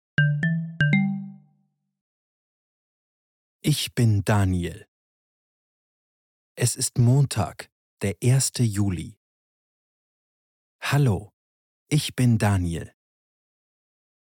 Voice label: German Mini Reader; Daniel in Germany